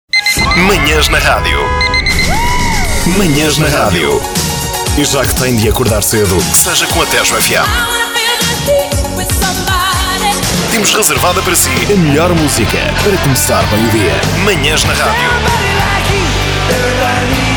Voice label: portuguese radio imaging

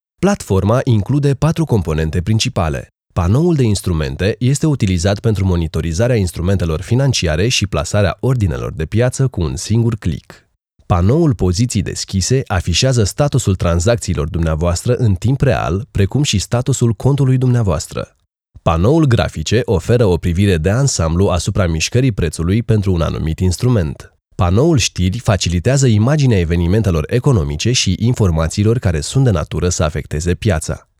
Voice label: romanian corporate videos

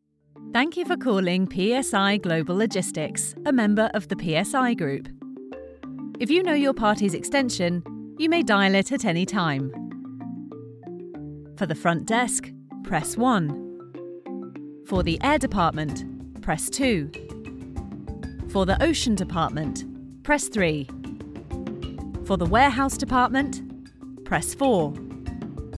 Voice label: English British IVR

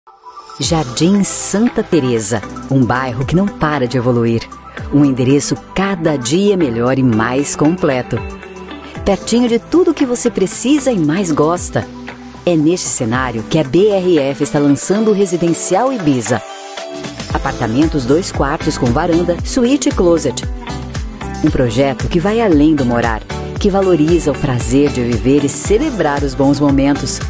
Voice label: portuguese brazilian real estate

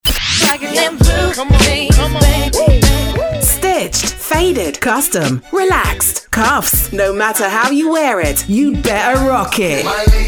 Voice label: english british promos

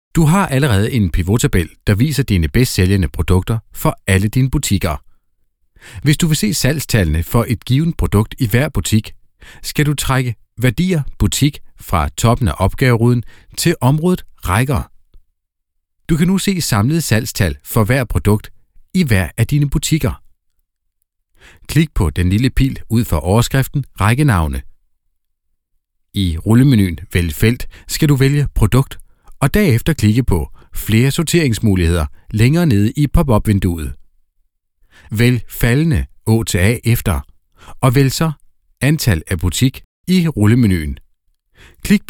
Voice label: danish e-learning

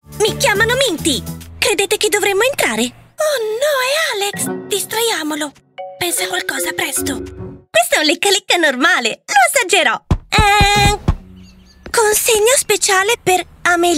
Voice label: Italian animation; professionelle italienische Schauspielerin

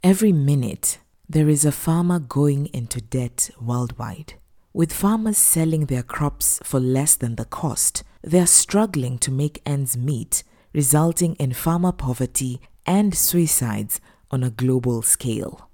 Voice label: English African documentaries

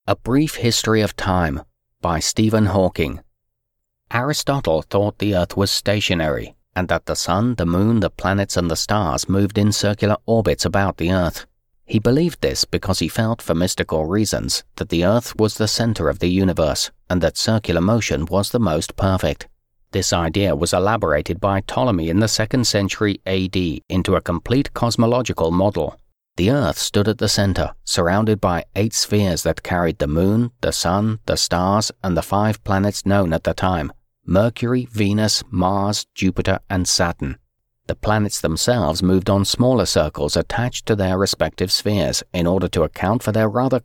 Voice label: english british others

